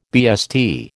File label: "BST", American